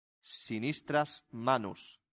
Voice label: "sinistras manus", Latin; santillana

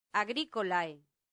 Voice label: "agricolae", latin; santillana

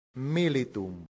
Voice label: "militum", latin; santillana

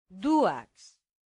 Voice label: "duas", latin; santillana

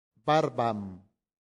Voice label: "barbam", latin; santillana

